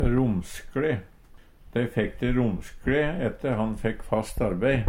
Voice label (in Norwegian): romskle, Numedalsmål; Dei fekk de romskLeare ette han fekk fast arbeid.; Adverb; bedre økonomi